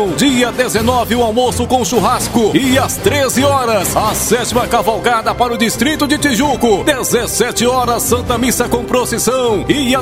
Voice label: chamada corrida de cavalos

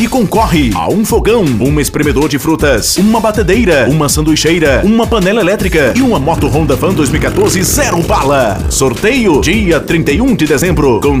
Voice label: promo fim de ano premiado; mercadinho sertanejo economico

